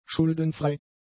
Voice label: "schuldenfrei", German; adjectives